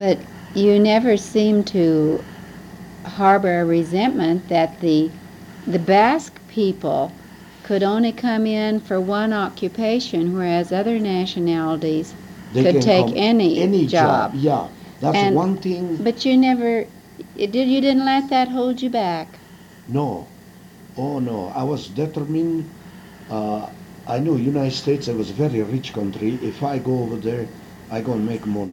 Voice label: Oral history with 74 year old white male Mesa County City Colorado, american english dialect recordings